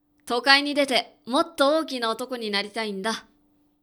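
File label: male; 男性 「都会に出て、もっと大きな男になりたいんだ。」; パワフル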